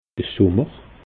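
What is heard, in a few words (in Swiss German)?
"summer", Alsatian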